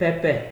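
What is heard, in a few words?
"pepper", Nigerian Pidgin, male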